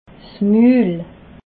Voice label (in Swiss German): "mul", alsace